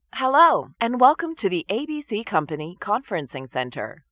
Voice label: custom greeting female